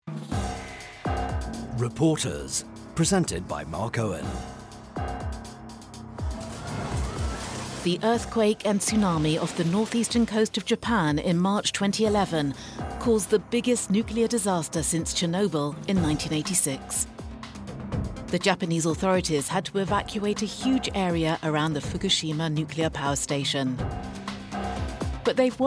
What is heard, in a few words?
promo; france24; fukushima